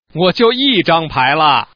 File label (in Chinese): baojing, man